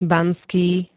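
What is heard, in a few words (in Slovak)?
"banský", Slovak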